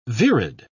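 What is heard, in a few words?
"virid", English, British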